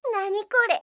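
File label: "nanikore", Japanese, komaru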